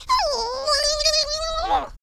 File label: angry, speech